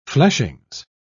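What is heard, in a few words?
"fleshings", British English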